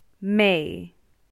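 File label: "May", English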